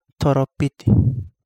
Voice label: Kapingamarangi; white tern

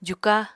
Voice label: "juka", Apatani

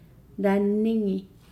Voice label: "sleeveless shirt", Nukuoro

